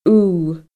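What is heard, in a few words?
"uth", Scottish Gaelic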